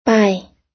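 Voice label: "bye", US English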